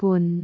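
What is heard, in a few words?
"gun6", Cantonese; amazonHiuJin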